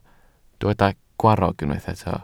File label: "gwarrog", Welsh